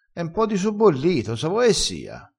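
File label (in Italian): "sobbollito", vocabolario dialettale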